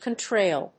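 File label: "contrail", English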